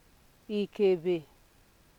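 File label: "ikebe", Nigerian Pidgin, female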